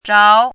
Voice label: "zhao2", Chinese

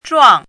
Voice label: "zhuang4", chinese

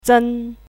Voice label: "zen1", chinese voice

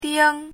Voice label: "ding1", chinese; chinese-voice

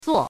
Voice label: "zuo5", chinese voice

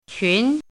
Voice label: "qun2", Chinese

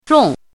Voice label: "zhong4", Chinese; chinese-voice

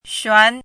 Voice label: "xuan2", Chinese

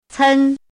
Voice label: "cen1", Chinese

